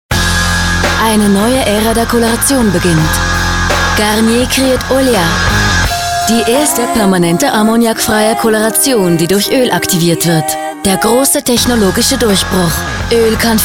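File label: german swiss female commercial; voice demos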